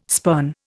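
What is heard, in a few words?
"spurn", Indian English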